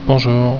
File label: "bonjour", french